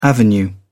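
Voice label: "avenue", English, British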